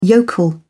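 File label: "yokel", British English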